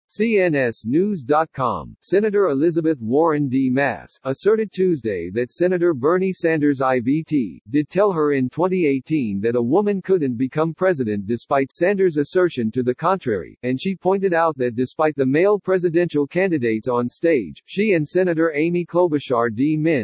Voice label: synthesized audio; seamless-align-enA-zhA